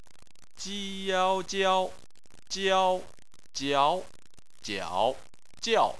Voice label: "jiao", chinese